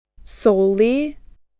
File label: "solely", English voice